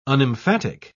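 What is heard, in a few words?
"unemphatic", British English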